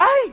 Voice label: "ay", voice